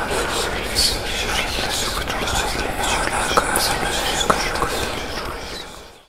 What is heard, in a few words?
whisper; slender; sisters